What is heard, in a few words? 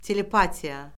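"telepatiya", Azerbaijani; Orfoqrafiya Lüğəti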